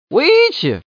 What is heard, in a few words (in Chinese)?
wei, putonghua woman; mahjong paohuzi